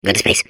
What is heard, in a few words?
babble; portal2